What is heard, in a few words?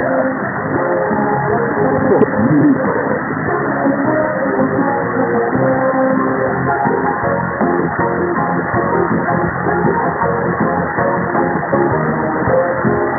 Radio; Radio Diez